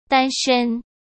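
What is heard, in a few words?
"单身", Mandarin Chinese; chinese flashcards; hsk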